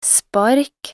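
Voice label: "spark", Norwegian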